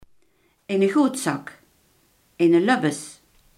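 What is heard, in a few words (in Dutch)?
iemand die goedaardig is, Limburgse gesproken woordenboeken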